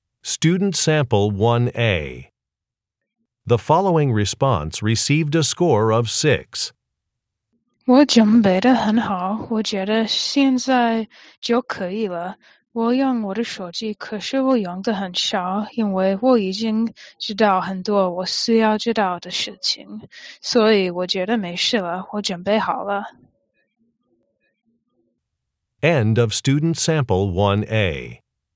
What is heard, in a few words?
chinese conversation